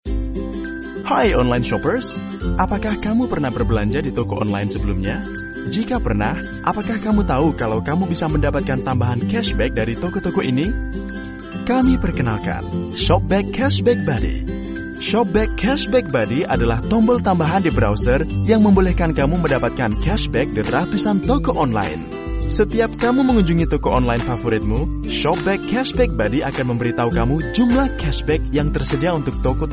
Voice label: Indonesian M Narration